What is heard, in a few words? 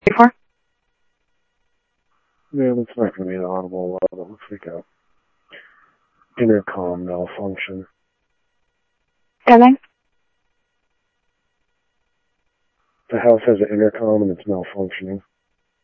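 Sheriff East County, scanner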